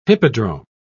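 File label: "hippodrome", British English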